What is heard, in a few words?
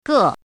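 "gè", Mandarin Chinese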